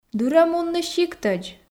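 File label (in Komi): "дыр-ӧ мунны сиктӧдз", Komi, female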